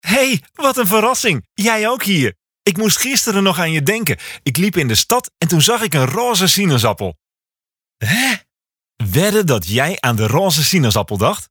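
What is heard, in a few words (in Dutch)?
voice-over vriendelijk fresh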